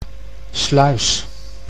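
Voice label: "Sluis", Dutch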